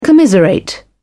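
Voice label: "commiserate", English, British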